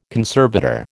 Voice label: "conservator", American